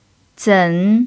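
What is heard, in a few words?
"zen3", Mandarin pinyin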